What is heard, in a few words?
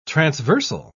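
"transversal", British English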